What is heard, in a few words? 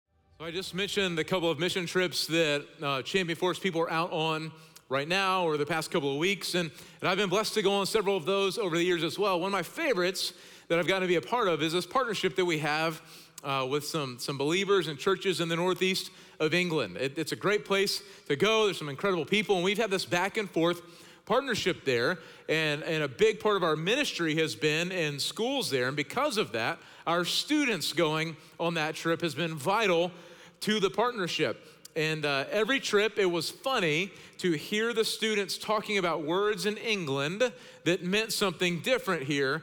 Sermon English